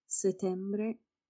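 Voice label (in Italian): "settembre", Italian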